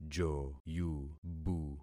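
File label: "joyubu", concatenated syllables; Affixing experiment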